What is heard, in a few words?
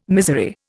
"misery", Indian